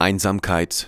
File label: german, spoken vocals; Techno Trance Essentials